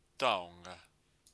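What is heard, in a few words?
"taonga", Māori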